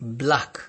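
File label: "black", Nigerian Pidgin, male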